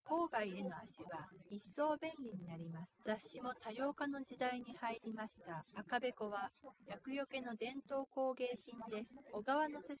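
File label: female instantaneous source; SiSEC08; SiSEC underdetermined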